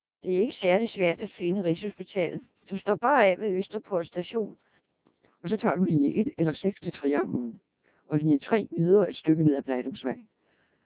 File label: Danish, CODEC2 1200 bps